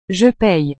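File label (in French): "je paye", French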